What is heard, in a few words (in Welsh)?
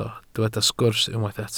"sgwrs" Welsh